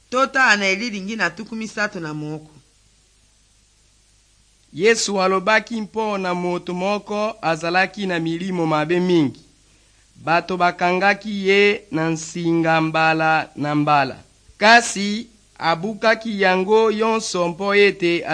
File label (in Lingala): good news lingala west, drama